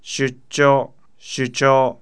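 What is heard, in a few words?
"shuchoo", Japanese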